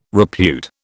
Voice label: "repute", British

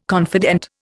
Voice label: "confidant", Indian

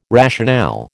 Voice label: "rationale", American

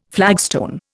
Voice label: "flagstone", Indian

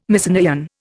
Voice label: "Mycenaean", Indian

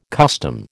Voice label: "custom", American

